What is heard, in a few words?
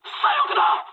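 Japanese left wing mood med; War Thunder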